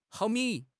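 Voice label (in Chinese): "毫米", Chinese; HSK6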